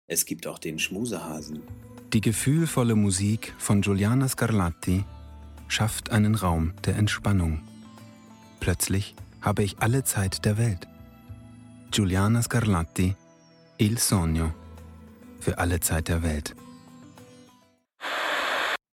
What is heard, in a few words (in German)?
gefühlvoll werbung